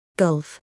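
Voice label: "gulf", English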